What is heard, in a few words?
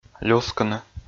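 "люскыны", Komi, male; kpv audio dict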